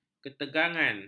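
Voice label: "ketegangan", Malay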